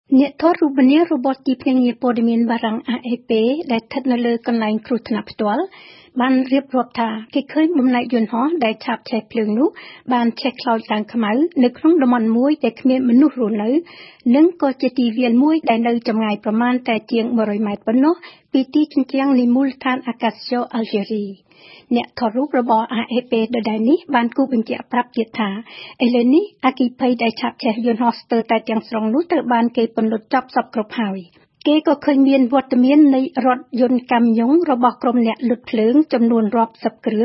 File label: NEWS CRASH AVION ALGERIE; rfi; cambodgien